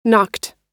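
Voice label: "knocked", American English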